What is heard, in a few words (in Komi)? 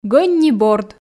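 "гӧн ни борд", Komi, female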